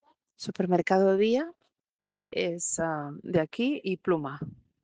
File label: Voice message